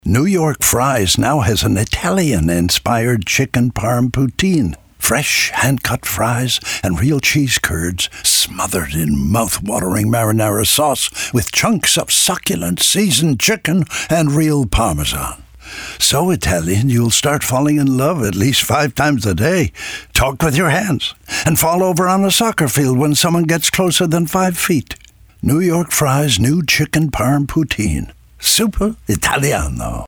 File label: Radio; New York Fries; Chicken Parm Poutine; Italian Moment; ENG